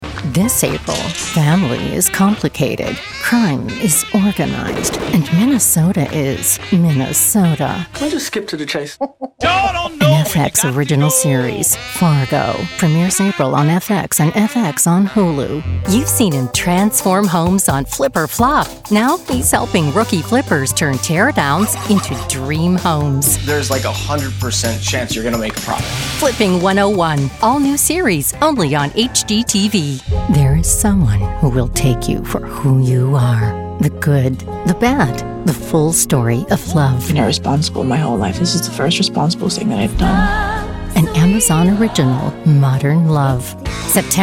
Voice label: Promo